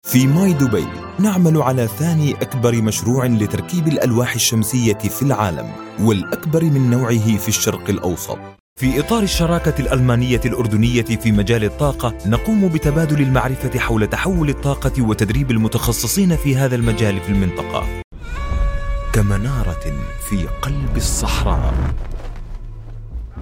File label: Corporate industrial